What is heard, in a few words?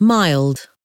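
"mild", English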